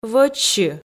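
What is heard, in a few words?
"вӧчсьы", Komi, female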